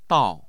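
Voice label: "dao", Chinese, fourth tone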